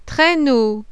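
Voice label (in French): "TRAINEAU", French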